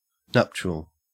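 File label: "nuptial", Australian English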